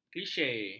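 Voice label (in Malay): "klise", Malay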